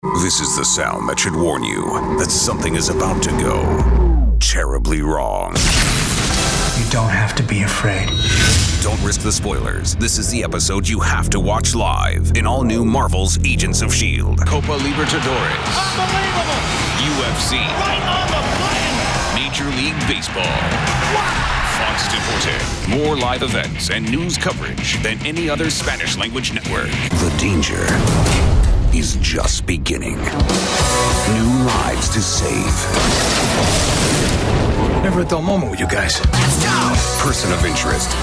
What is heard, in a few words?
PROMO DRAMA, voiceover